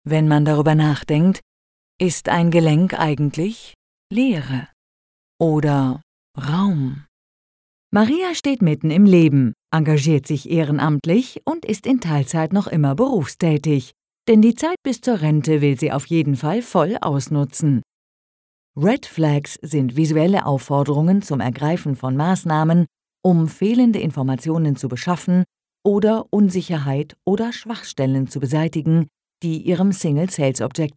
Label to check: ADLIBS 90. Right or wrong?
Wrong